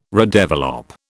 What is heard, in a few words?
"redevelop", British